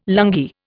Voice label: "lungi", Indian